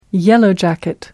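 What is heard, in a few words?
"yellow jacket", British English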